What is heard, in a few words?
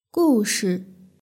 "gushi", Chinese; hsk3